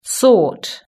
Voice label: "sort", british english